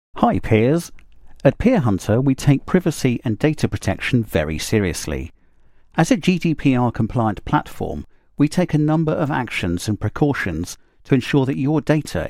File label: Corporate Explainer